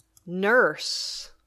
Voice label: "nurse", English